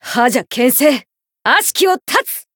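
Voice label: Voice variable chant, Japanese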